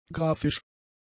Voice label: "grafisch", German; adjectives

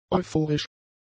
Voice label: "euphorisch", German; adjectives

